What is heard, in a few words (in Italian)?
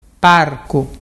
parcu, dialettu